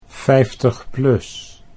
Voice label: 50 plus, Dutch

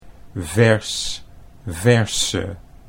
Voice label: "vers verse", Dutch

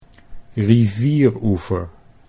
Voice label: "rivieroever", Dutch

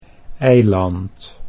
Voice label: "eiland", Dutch

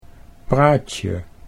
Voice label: "praatje", Dutch

